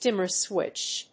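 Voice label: "dimmer switch", English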